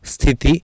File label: "sthiti", Sanskrit